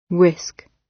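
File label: "risk", British; Life, leisure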